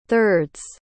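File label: "thirds", English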